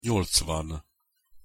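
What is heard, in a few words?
"nyolcvan", Hungarian pronunciation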